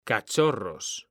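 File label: "CACHORROS", Spanish, male; mekanta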